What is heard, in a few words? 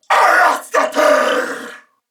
Attack Male, en; Corpus Venus; Warframe; CorpusVenusMaleB